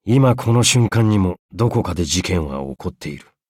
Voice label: levelup ja; cookie run

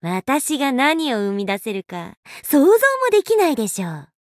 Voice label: alchemist touch, ja; cookie run

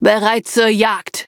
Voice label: loading, de; Cookie Run

